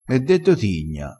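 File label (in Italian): "di tigna", vocabolario dialettale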